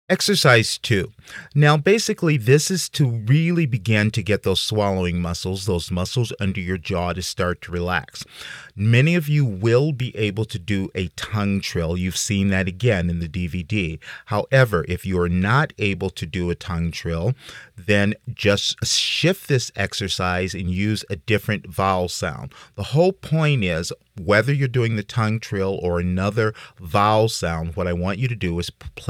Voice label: introduction male